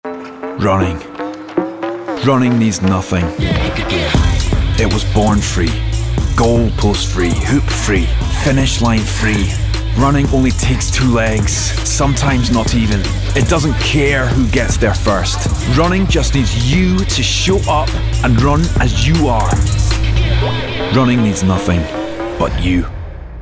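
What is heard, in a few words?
Voice Over Showreel Intense, Passionate, Tough; Adidas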